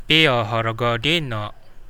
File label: how much, kadazan